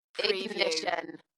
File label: "Ignition", UK female; Dalekness; beanstalk audio